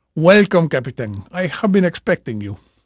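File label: Spanish male, voice; Pirates Of The Caribbean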